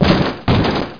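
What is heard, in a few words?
"grass", English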